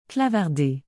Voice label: "clavarder", Québécois French